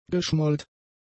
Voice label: "geschmollt", German; adjectives